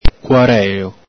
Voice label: "cuarelo", Veneto

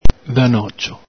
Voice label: "dhanocio", Veneto

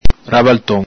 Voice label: "rabalton", Veneto; ENG: tumble; ITA: ribaltamento, capitombolo

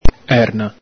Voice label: "erna", Veneto

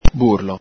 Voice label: "burlo", Veneto; ENG: bellow, moo; ITA: muggito

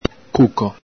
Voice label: "cuco", Veneto; ENG: fool; ITA: sciocco, gonzo

